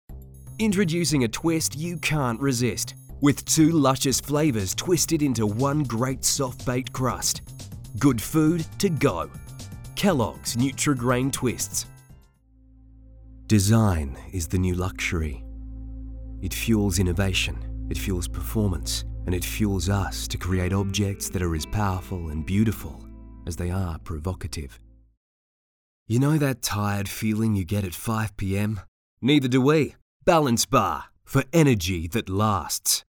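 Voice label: Commercial Australian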